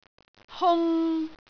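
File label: "hung3", Cantonese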